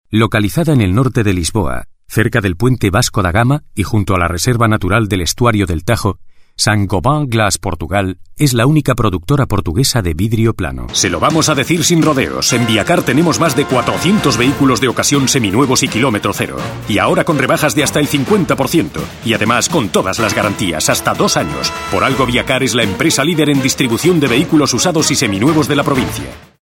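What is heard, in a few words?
Male, Spanish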